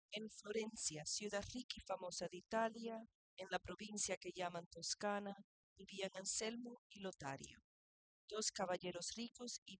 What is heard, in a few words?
female4 inst sim, underdetermined; SiSEC08